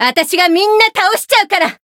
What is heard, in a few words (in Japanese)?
Voice variable chant jp